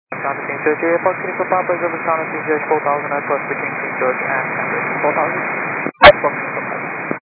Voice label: 122.925 MHz; scanner radio